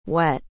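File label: "wet", English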